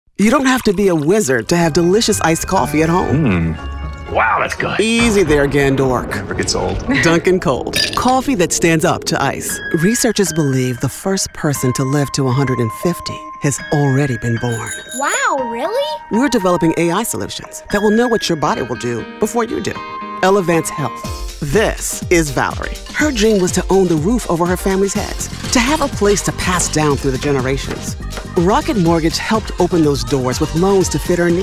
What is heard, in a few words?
Commercial